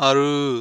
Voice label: "aroo", vowel